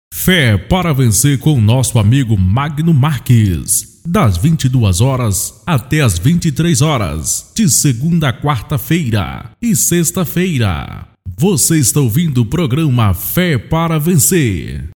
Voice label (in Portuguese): locutor; fe pra vencer